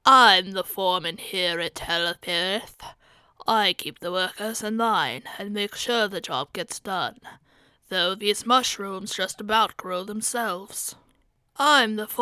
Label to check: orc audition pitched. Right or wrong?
Right